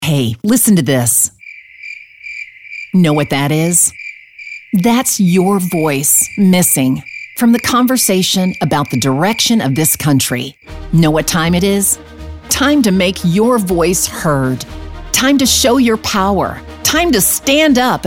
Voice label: Political Positive